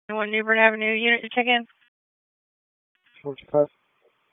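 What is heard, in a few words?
Police Downtown District Dispatch, p25; Raleigh Police Downtown District Disp; wake p25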